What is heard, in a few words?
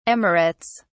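"emirates", British English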